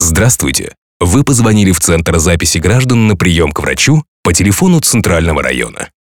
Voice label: M IVR